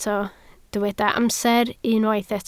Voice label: "amser", Welsh